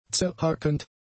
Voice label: "zerhackend", German; adjectives